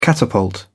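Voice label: "catapult", British English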